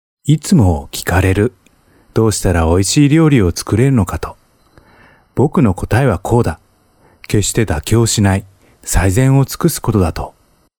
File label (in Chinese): 日语男 外语 160每分 磁性